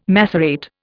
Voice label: "macerate", Indian